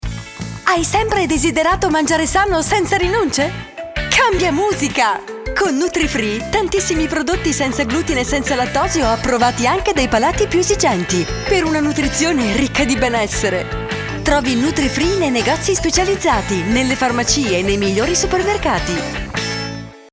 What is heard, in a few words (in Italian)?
SPOT RADIO